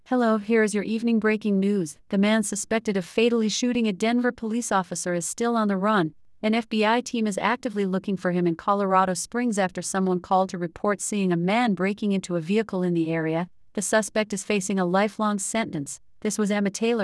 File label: improved newscaster female